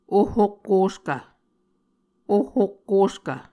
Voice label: "oh okkoskv", Muscogee; Mvskoke Words with Repeated Consonants; Martin, Jack B., and Margaret McKane Mauldin. 2023. A Dictionary of Creek/Muskogee